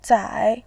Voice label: "zai3", voice